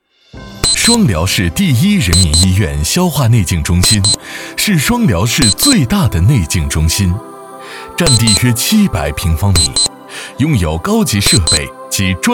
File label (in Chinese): 男 学校宣传